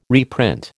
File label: "reprint", American